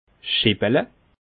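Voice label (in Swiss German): "scheppele", Bas Rhin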